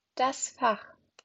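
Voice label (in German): "Fach", German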